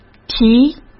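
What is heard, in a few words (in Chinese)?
"提", Mandarin Chinese; hsk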